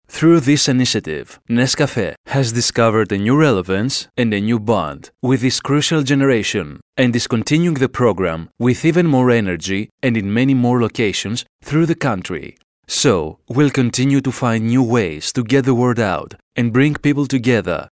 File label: narration english